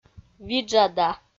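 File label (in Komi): "виджада", Komi, female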